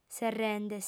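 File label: "serrende", female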